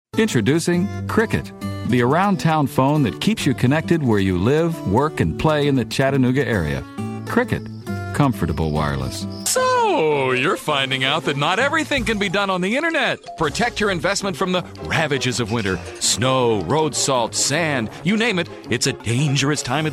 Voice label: General, commercial